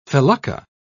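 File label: "felucca", British English, dictionary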